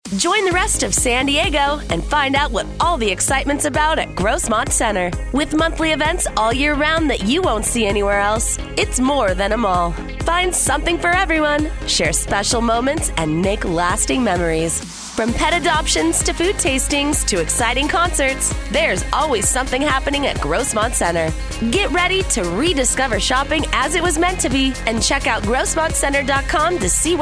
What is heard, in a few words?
Branding Spot; Grossmont Center; KYXY